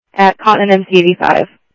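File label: Sheriff West County, scanner audio trunk; ThompsonPeakScot; MCSO W TAC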